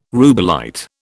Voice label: "rubellite", British

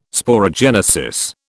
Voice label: "sporogenesis", British

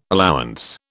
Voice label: "allowance", British

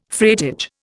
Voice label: "freightage", Indian

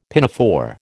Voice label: "pinafore", American

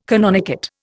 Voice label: "canonicate", Indian

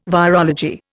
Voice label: "virology", Indian

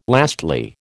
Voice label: "lastly", American

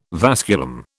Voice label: "vasculum", British

